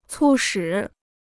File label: "促使", Chinese